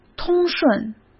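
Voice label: "通顺", Mandarin Chinese; hsk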